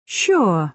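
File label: "sure", British English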